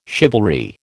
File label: "chivalry", American